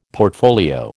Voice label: "portfolio", American

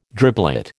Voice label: "driblet", American

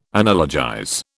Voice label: "analogize", British

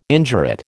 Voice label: "indurate", American

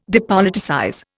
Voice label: "depoliticize", Indian

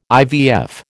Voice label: "IVF", American

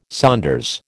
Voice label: "saunders", American

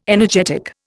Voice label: "energetic", Indian

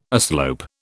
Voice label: "aslope", British

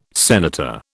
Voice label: "senator", British

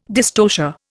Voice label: "dystocia", Indian

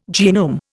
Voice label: "genome", Indian

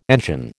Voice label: "engine", American

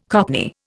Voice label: "cockney", Indian

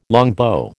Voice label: "longbow", American

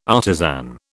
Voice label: "artisan", British

